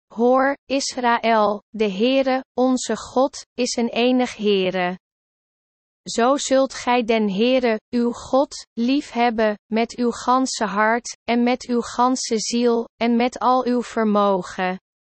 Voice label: Dutch; deut 6 4 5

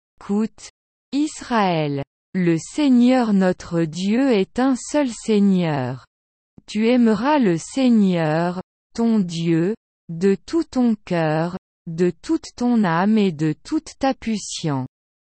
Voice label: French; deut 6 4 5

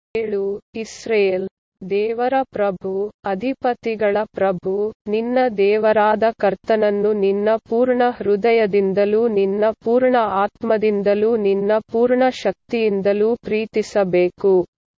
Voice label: Canarese; deut 6 4 5

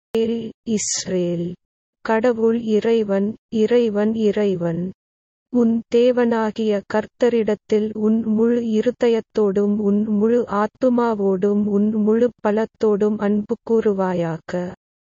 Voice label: TAMIL

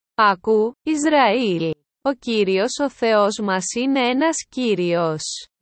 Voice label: Greek; deut 6 4 5

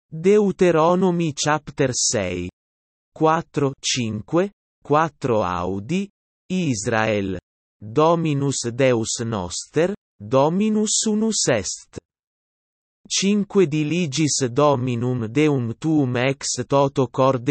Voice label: Latin; Deuteronomy 6:4-5

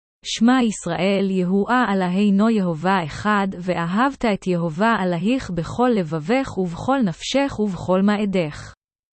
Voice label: Hebrew; Deuteronomy 6 4 5

